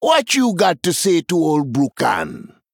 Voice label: Male Troll Greetings; Brukan